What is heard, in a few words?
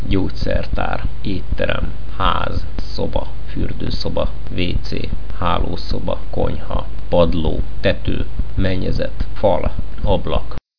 hungarian places